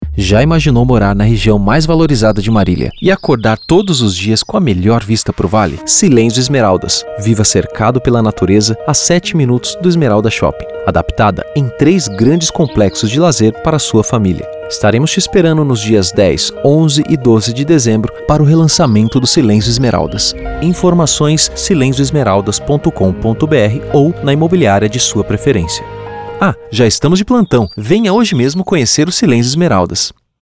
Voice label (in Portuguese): Spot radio; lancamento